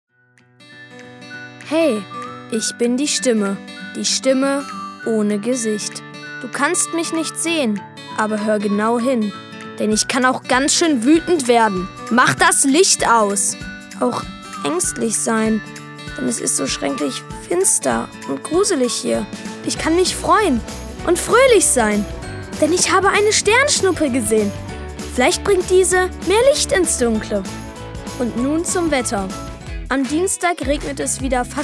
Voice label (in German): Werbung